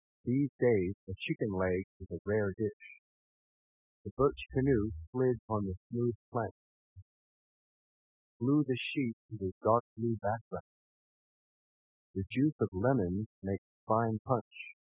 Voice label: male car noise